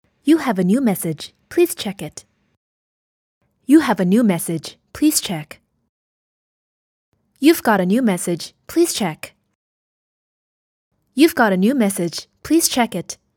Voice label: 英语提示音您有新消息

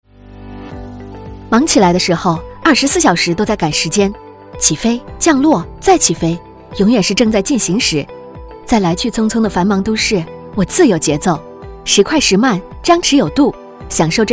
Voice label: 广告朝气干练多面的都市女性

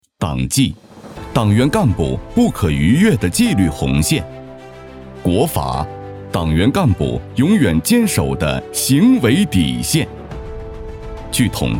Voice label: 警示片

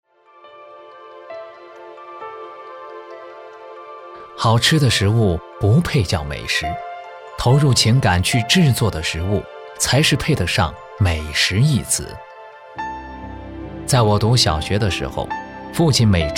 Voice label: 解说美食故事娓娓道来

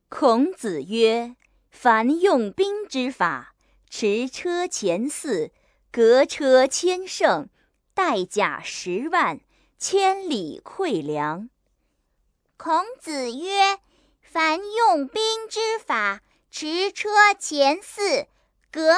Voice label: 课件 范读

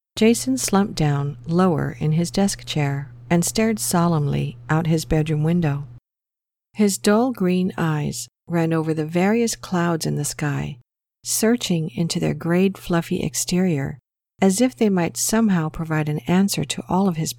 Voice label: 讲述 电子书

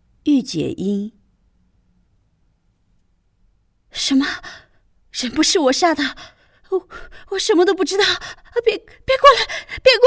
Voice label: 角色音御姐

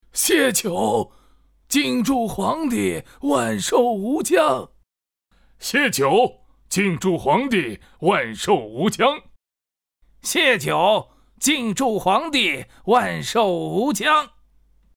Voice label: 角色多音色群臣音

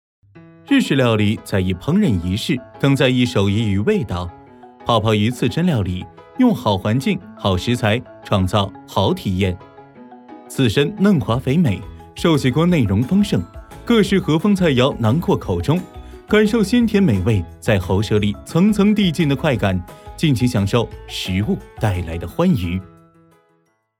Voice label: 广告

